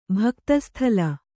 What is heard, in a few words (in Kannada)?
"ಭಕ್ತಸ್ಥಲ", Kannada